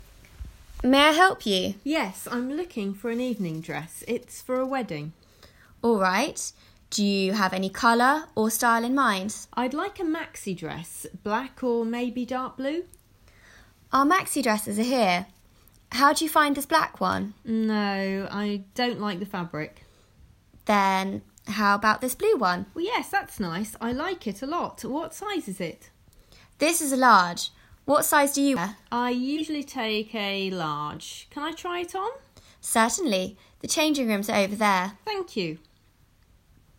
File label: english dialogue